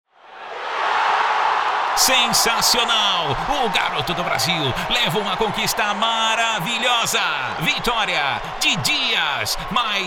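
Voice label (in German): Brazilian Portuguese Werbung Lebendig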